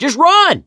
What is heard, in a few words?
emphatic go, voice, mechanic